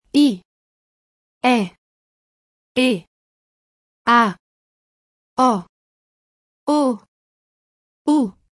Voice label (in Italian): vocali italiani